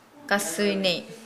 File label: Nukuoro; become wet